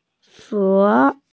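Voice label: "fo2", Chinese, F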